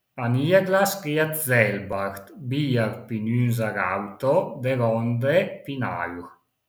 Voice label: Cimbrian (Lusern); Aniaglas geat selbart: biar pin ünsar auto, de åndre pin aür; AlpiLinK

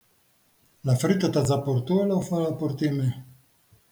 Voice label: Francoprovençal (Aosta); La frütta t’a dza portoù-la o fa la portì me?; AlpiLinK

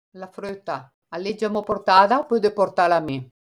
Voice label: Lombard (Gazzaniga, Province of Bergamo); La früta a l’e giammò portada pöde purtela me?; AlpiLinK

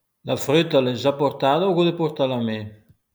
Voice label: Lombard (Brescia); La früta l’e già portada o pöde purtela me?; AlpiLinK

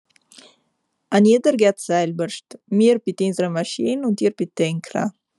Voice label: Sappadino; An jeader geat selberscht: mir pit insere maschin und ir pit enkhra; AlpiLinK

